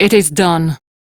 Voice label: Crew Task Done, Steel Meridian Female; Dialog; en; Warframe; RailjackCrew